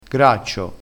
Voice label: "graccio", dialettale crespinese

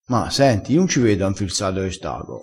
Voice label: "infirza", vocabolario dialettale

